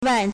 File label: "wan4", Chinese